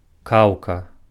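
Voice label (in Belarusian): "каўка", Belarusian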